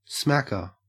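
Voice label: "smacker", Australian English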